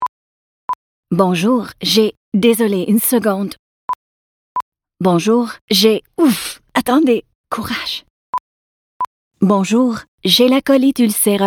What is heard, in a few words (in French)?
publicites fr